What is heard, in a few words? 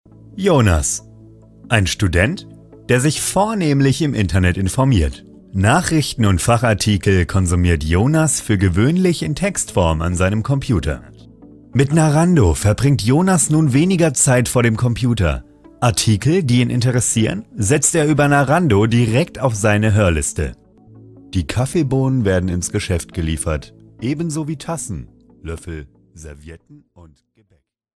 explainer